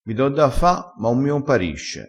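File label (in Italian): "compari", dialettale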